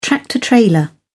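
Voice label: "tractor trailer", British English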